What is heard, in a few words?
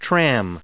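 "tram", English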